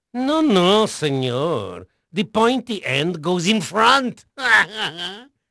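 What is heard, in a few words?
Spanish male, voice; Pirates Of The Caribbean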